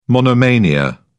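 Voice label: "monomania", British English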